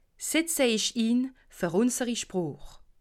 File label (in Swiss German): "setze", alsace